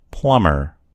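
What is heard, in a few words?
"plumber", American English; gcompris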